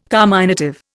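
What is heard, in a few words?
"carminative", Indian English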